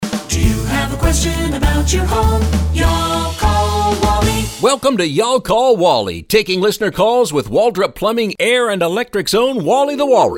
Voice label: radio ads; Waldrop Duct Sealing